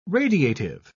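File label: "radiative", British English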